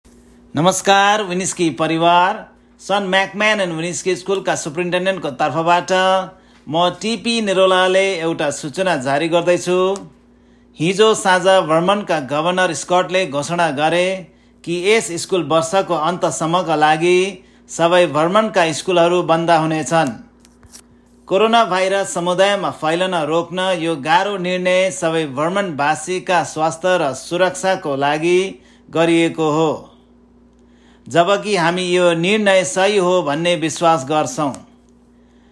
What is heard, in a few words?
nepali